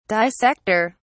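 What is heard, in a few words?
"dissector", British English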